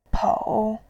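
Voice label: "pou", Mandarin, tone 3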